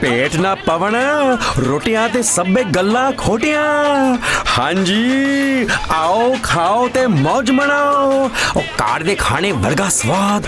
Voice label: Punjabi